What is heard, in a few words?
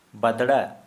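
Santali male bat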